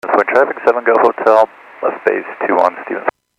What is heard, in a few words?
Airports 122.7, Radio; KSTE